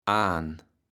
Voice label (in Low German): "aan", platt